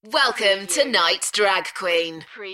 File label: UK female, Welcome tonights drag queen; beanstalk audio